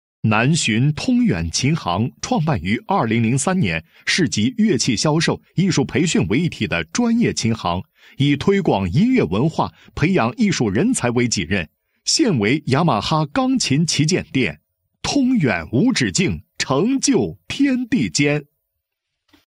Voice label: 男 宣传广告 琴行宣传广告; 通远琴行

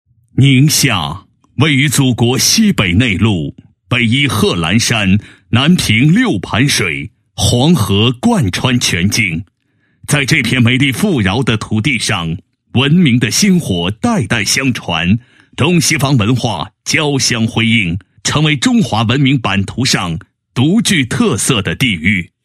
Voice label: 男 解说 解说词; 某西北内陆城市解说词

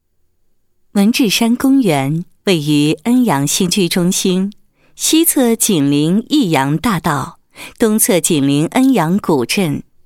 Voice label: 女 项目 主题公园 规划方案配音; 巴中市恩阳区“文治山主题公园”规划方案配音

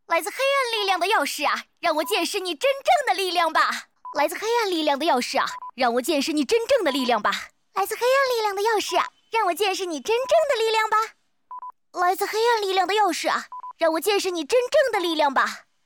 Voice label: 女 游戏动漫 正太音; 黑暗力量

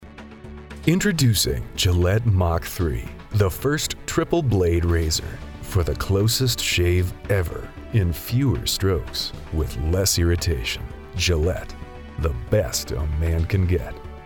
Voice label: 美 外籍英男 深沉磁性 剃须刀广告

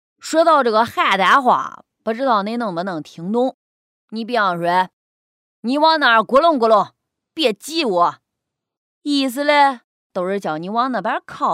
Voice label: 女声 方言 邯郸话

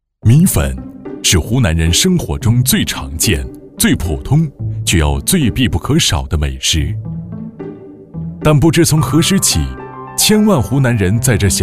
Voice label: 男声 舌尖风格 配音; 国语男声配音; 湖南米粉

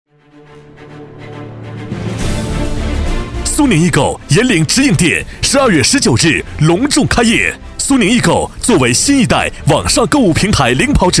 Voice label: 男60 促销; 国语男声配音; 交广主播; 苏宁易购

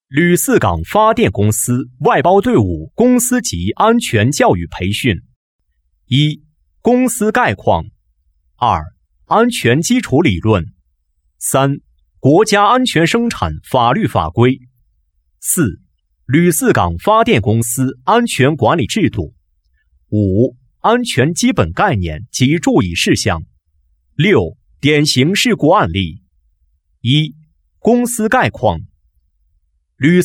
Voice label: 男, 课件, 安全教育培训; 吕四港

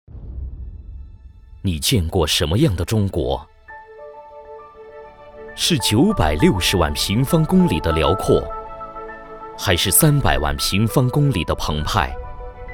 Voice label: 男, 节目片头航拍中国

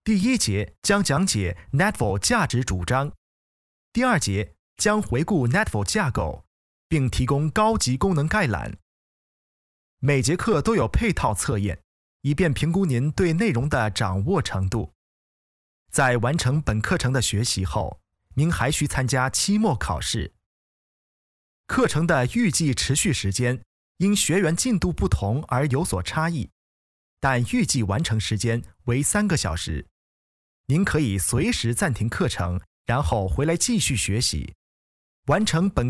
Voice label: 英男, 中文, 课件

